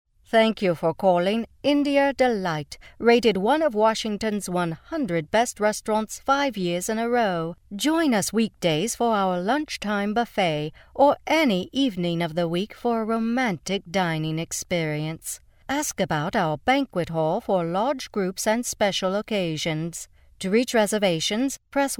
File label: British Accent Dry